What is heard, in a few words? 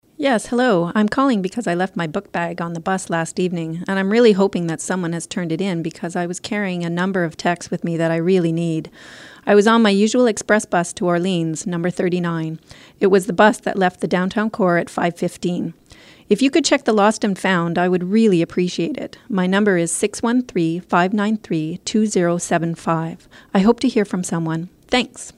voicemail message missing bag; Caller:Yes hello I am calling because I left my book bag on the bus last evening and I am really ho